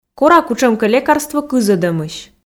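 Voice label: "кора кутшӧмкӧ лекарство кызӧдӧмысь", Komi, female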